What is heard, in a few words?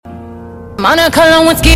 loud